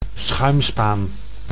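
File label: "schuimspaan", Dutch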